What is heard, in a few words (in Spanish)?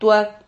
"tuad", Silabas